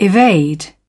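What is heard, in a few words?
"evade", British English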